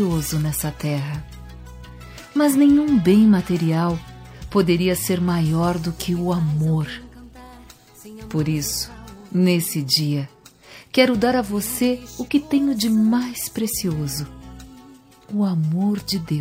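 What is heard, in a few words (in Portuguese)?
Evangélica Aniversário de Filho, female, telemensagens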